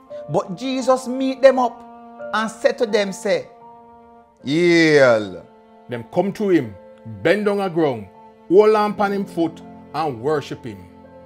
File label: verse, jamaican creole